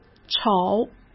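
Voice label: "丑", Mandarin Chinese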